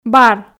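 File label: "бар", Komi, female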